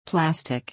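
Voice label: "Plastic", English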